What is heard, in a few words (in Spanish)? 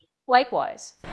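Voice Acting, Spanish, female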